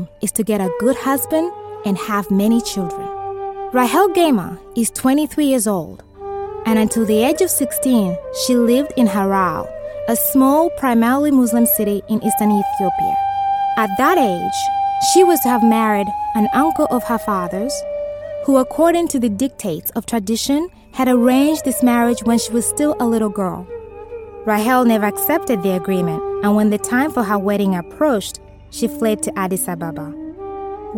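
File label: English African, Narrative; GoLocalise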